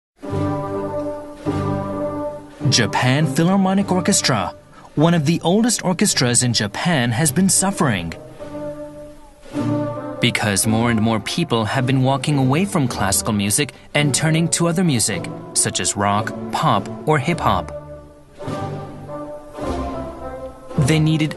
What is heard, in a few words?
Narration; Narration Demo